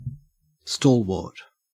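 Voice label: "stalwart", Australian English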